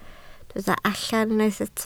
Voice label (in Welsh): "allan", Welsh